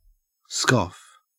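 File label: "scoff", Australian English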